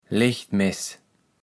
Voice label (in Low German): "Lechtmess", kaevels platt